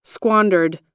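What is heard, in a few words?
"squandered", American English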